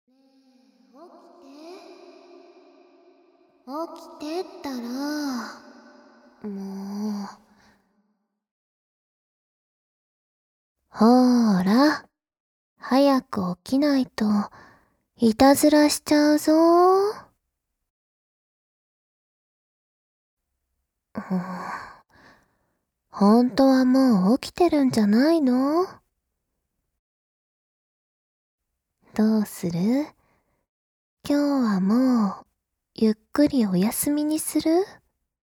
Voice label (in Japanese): おはよう, ASMR